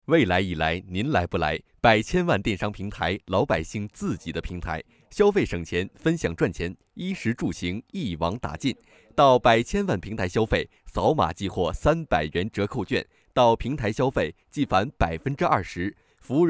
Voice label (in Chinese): 广告促销